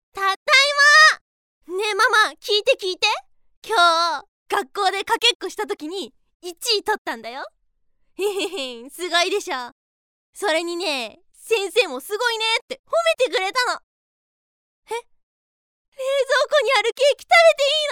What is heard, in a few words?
Cheerful Girl; 元気な女の子; bloomz-inc